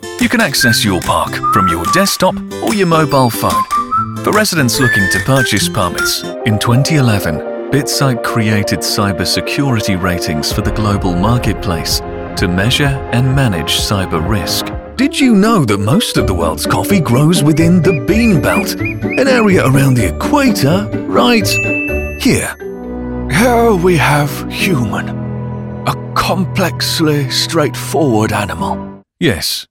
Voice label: corporate explainer, British, warm, down-to-earth, relatable Male Voice Over